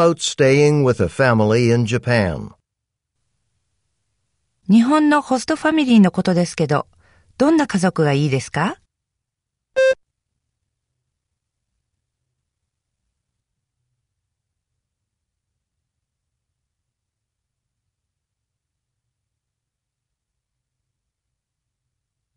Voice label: japanese language conversation